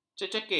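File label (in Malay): "cecekik", Malay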